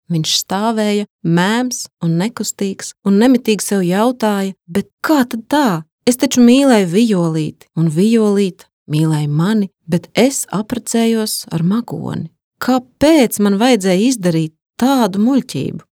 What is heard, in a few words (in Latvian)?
lv